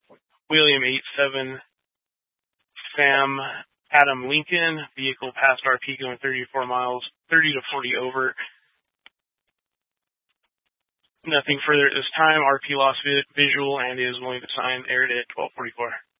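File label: Dispatch, Scanner audio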